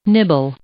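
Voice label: "nibble", English; verb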